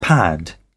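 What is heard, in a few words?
"pad", British English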